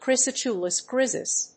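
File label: "Cricetulus griseus", English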